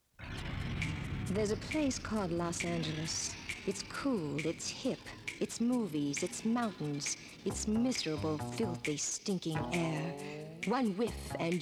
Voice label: Voice-over